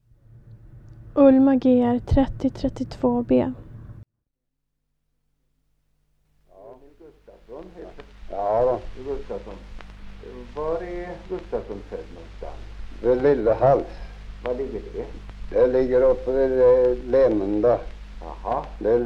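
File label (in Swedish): Motala Östergötland, dialekter; Folkminnen; Svenska sagor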